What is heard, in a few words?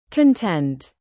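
"content", adjective, British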